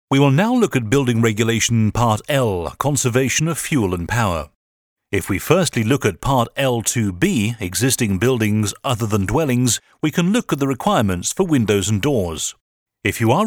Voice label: Instructional Video